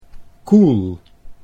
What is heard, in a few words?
"koel", Dutch